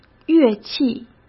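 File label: "乐器", Mandarin Chinese; hsk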